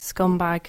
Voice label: "scumbag", english

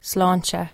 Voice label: "Slainte", irish

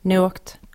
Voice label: "Nuacht", irish

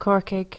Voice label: "Corcaigh", irish places

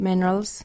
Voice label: "Minerals", english

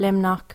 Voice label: "Luimneach", irish; irish places

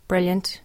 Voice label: "brilliant", english, Ireland

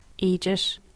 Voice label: "Eejit", english

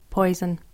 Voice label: "Poison", English

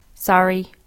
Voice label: "Sorry", english

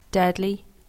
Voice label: "deadly", english, Ireland

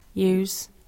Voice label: "Youse", english, Ireland

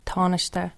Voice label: "Tanaiste", irish

